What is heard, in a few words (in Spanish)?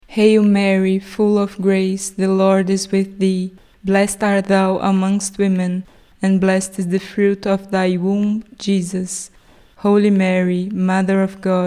ave maria ingles normal; ave maria idiomas